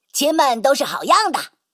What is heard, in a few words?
Speech Quick Commands Good Game